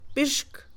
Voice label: pulga, Mixe, Mujer; INALI

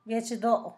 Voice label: Miahuatlán, Zapoteco; Topónimos; San Pedro Mártir; INALI

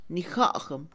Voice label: saber, Mixe, Mujer; INALI

